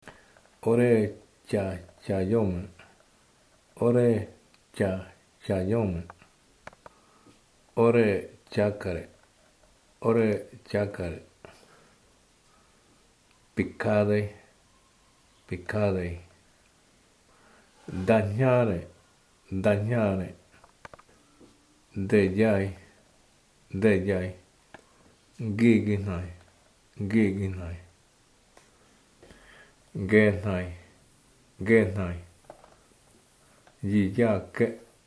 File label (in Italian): Ayoreo, liste di parole